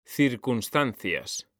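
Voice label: "circunstancias", Spanish, male; mekanta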